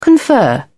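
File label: "confer", British English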